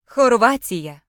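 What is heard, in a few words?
"croatia", Ukrainian; gcompris; geography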